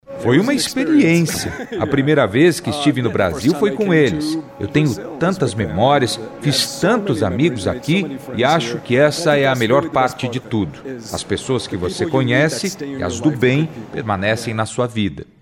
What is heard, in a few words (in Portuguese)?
ENTREVISTA EX GUITARRISTA